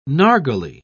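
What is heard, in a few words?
"nargileh", British English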